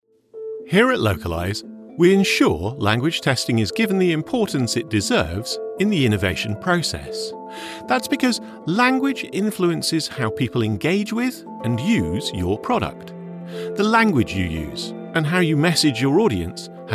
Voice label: english british corporate videos